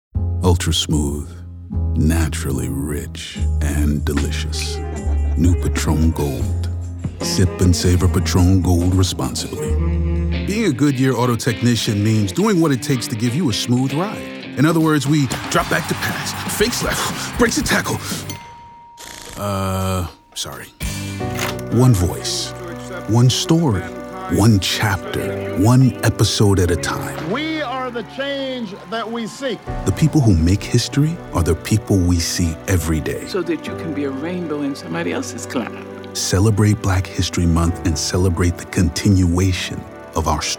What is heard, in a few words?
Commercial